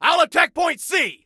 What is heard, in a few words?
ILL ATTACK, Male; T2 Male 01